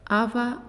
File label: "Lie", Naasioi